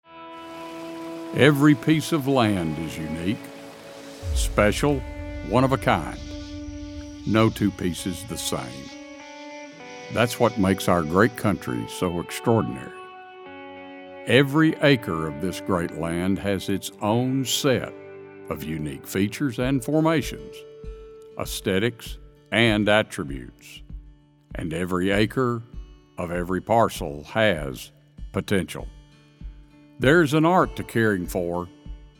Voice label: Industrial Narration